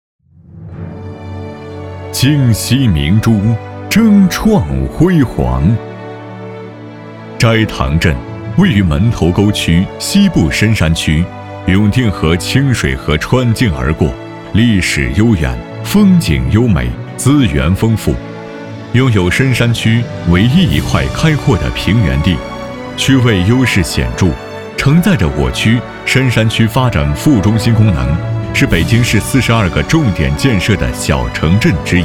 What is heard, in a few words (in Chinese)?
男 宣传片 斋堂镇 宣传片